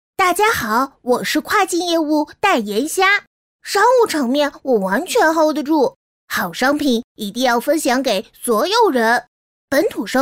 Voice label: 童声 男童 音色2

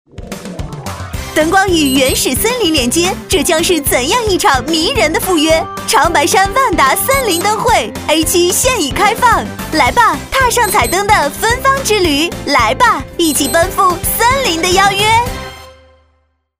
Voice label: 促销广告 活力

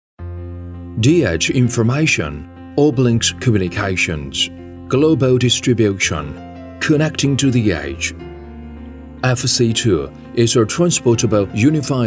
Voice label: 男英 外语 国内英语 宣传片 配音 磁性

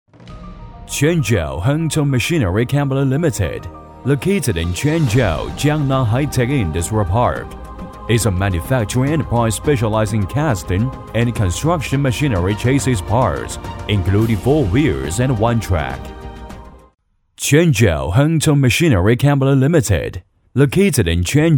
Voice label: 男英 外语 国内英语 宣传片 大气; 恒通铸造宣传片

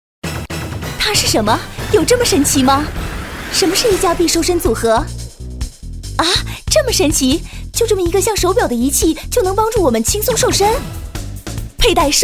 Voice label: 电购激动女; 广告

